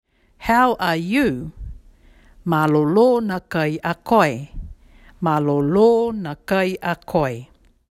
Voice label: Niue how are you; 2022 Pacific Language Weeks